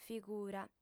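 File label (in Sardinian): "figura", female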